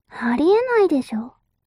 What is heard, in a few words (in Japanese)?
mob 女子 台詞